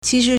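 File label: "qi2shi2", Mandarin Chinese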